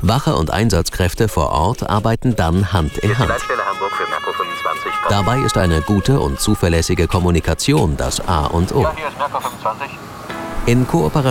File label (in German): polizei app de broadcast